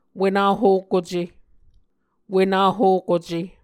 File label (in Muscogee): "wenahokuce", Muscogee